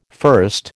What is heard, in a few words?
"first", American